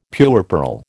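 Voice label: "puerperal", American English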